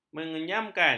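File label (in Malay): "menyemayamkan", Malay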